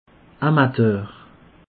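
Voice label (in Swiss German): "amateur", Alsatian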